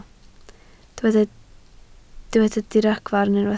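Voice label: "diragfarn" Welsh, spoken word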